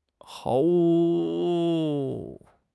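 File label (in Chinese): "毫无", Chinese; HSK; HSK6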